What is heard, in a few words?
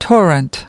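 "torrent", English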